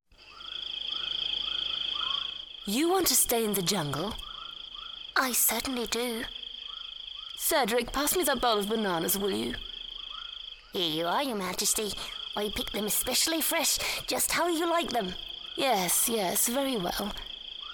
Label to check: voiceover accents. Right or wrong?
Wrong